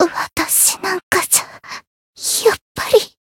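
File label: Voice retire, Japanese; yuna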